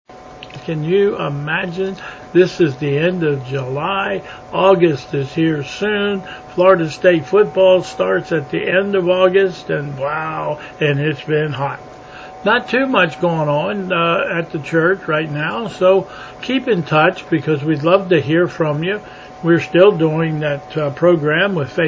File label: Welcome and Announcements